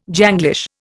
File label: "Janglish", Indian